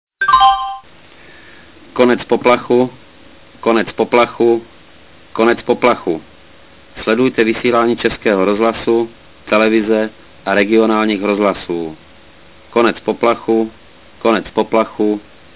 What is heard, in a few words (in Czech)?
verbalni konec poplachu, sireny